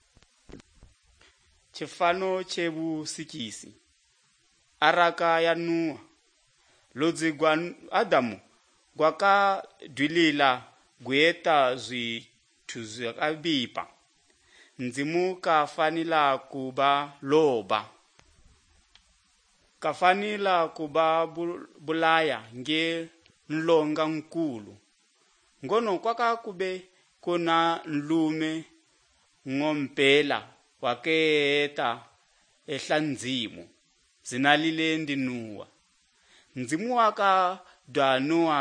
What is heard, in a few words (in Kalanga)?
good news kalanga lilima, drama